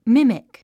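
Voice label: "mimic", English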